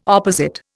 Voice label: "opposite", Indian